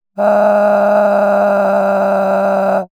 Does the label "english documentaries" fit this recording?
No